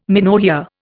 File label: "menorrhoea", Indian